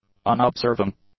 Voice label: "unobserving", English; adjectives